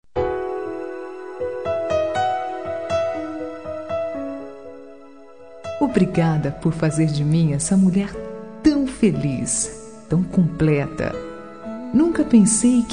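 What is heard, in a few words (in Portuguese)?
aniversário casamento feminina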